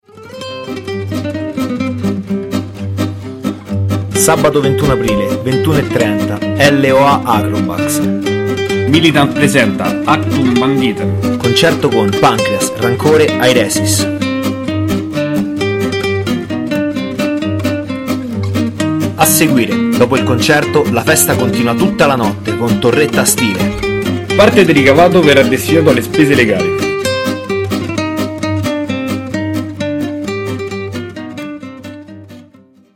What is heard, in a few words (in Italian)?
spot; militant; achtung banditen